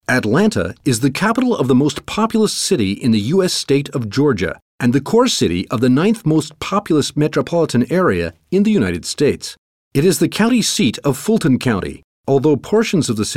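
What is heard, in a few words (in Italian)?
inglese usa, Demo Voci Spot Pubblicitari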